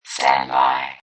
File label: Robot Voice "Standby"; Robot Type 1 voice; Robot Sound Effects; Lynne Music